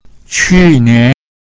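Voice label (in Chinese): "qu nian", Mandarin Chinese pinyin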